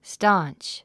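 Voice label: "staunch", English word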